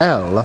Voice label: "L", male brit; Male British Alphabet; VOCALS and SPOKEN WORD